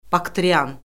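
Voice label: "baktrian", Azerbaijani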